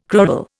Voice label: "crural", Indian